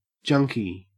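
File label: "junkie", Australian English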